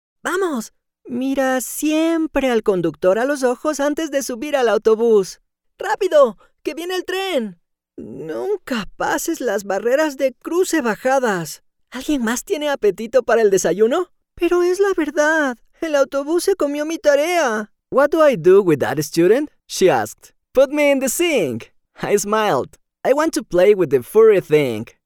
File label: KID Voice Spanish